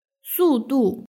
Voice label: "sudu", Chinese